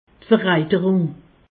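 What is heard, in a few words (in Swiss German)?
"vereiterung", Alsatian; medecine; suppuration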